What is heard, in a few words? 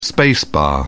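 "space bar", English, British English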